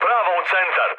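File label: Serbian bomb success mood low, speech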